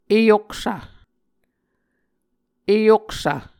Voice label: "eyoksv", muscogee